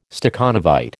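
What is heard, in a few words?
"Stakhanovite", American English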